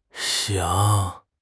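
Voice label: "xing3", Chinese; HSK4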